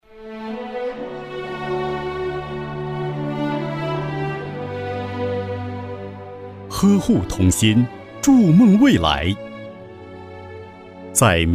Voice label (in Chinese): 男 专题 民乐